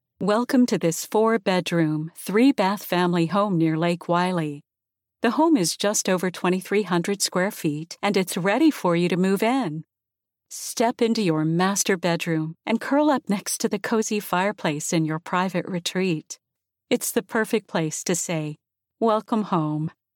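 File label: English American, female, voice over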